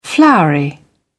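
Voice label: "floury", British English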